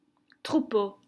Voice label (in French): "Troupeau", French